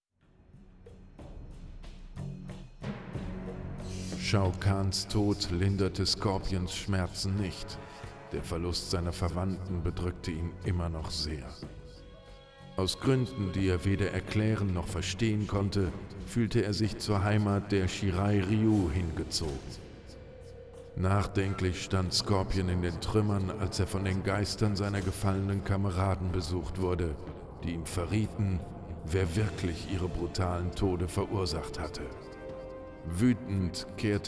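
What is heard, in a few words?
music voice-over endings German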